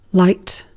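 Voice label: "light", English word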